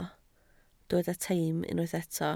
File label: "teim", Welsh